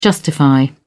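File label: "justify", British English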